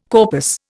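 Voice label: "corpus", Indian English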